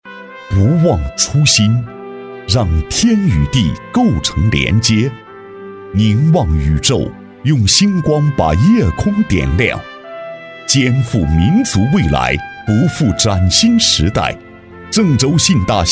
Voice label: 男 力量走心 TVC; 信大

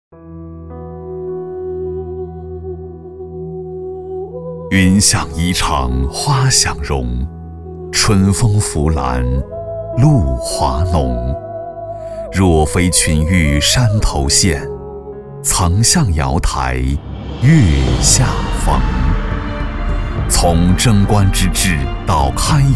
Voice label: 男 纪录片; 诗游长安; 系列纪录片

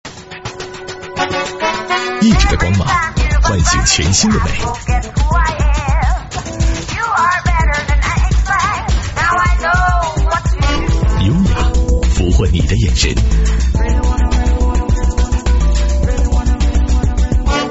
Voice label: 男 广告 汽车类; 本田皓影

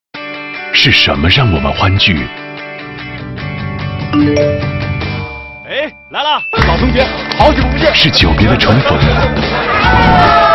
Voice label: 男 广告 酒类广告

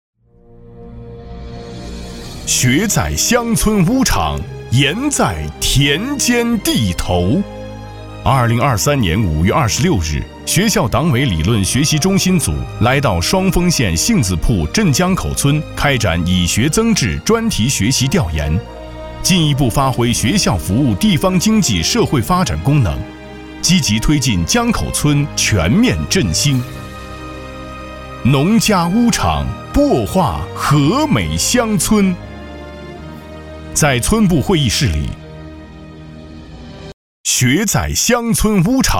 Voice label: 男 专题 大气铿锵; 湖南财政

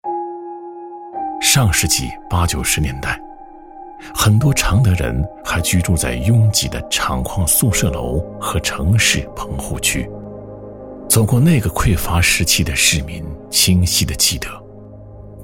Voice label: 男 纪录片; 读懂常德

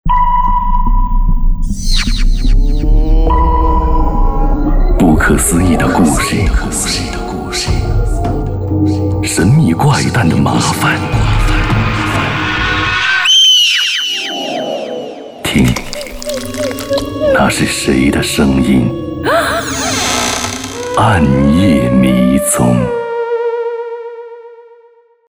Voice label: 男, 广告; 暗夜迷踪

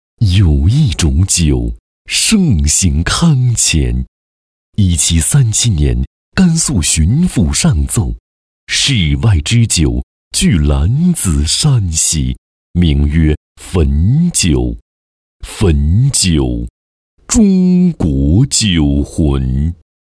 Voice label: 男 大气广告; 汾酒

